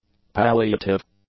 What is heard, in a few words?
"palliative", English; adjectives